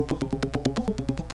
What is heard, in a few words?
labials back, romanian palatalization